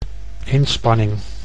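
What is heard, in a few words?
"inspanning", Dutch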